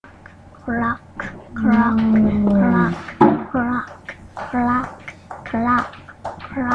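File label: "clock", spoken word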